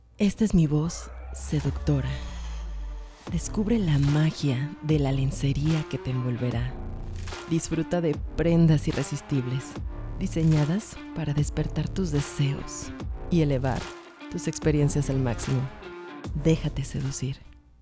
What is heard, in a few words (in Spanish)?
Seductora, locutora